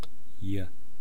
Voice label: "ya", east cree syllabics